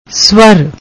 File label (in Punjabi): "savar", Punjabi; ਸ੍ਵਰ